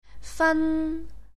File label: "fan11", Cantonese jyutping